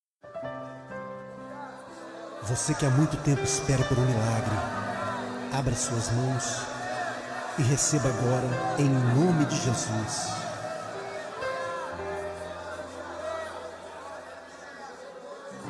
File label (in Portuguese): Otimismo Evangelico Masculino; Temas Evangelicos